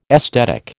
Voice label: "esthetic", American

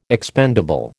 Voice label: "expendable", American

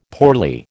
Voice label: "poorly", American

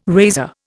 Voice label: "razor", Indian

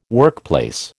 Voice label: "workplace", American

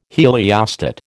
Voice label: "heliostat", American

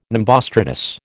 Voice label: "nimbostratus", American

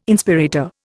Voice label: "Inspirator", Indian

